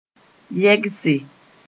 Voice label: "yɛgse", Mooré; shake something

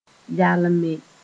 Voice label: "yalme", Moore

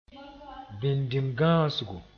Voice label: "bindimgãasgo", moore

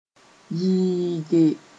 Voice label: "yɩɩge", Mooré

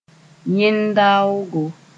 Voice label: "yẽn-daoogo", Mooré

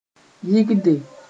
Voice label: "yɩgde", Moore

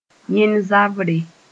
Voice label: "yẽn-zabre", moore

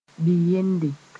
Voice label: "bi-yende", Mooré

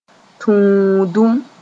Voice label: "tũudum", moore

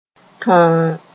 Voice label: "tõo", Mooré

